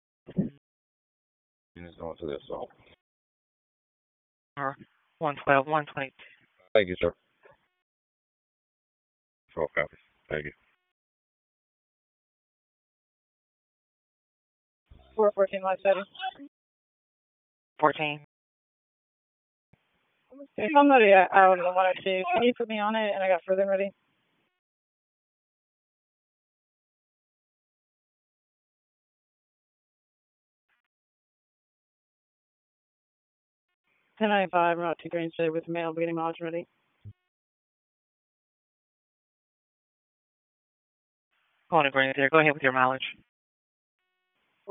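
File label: Raleigh Police Southeast District Dispatch, p25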